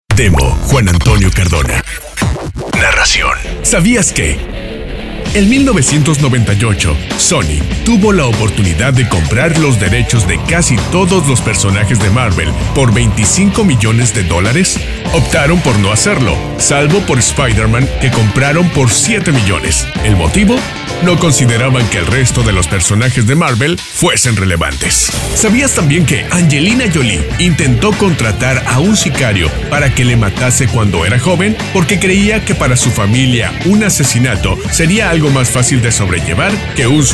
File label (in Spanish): NARRACION